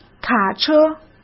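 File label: "卡车", Mandarin Chinese; hsk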